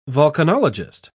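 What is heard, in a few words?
"volcanologist", British English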